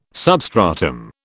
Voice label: "substratum", British

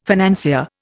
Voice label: "financier", Indian

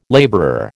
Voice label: "labourer", American

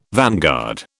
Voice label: "vanguard", British

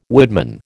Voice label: "woodman", American

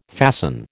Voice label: "fasten", American

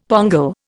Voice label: "pongal", Indian; Dictionary

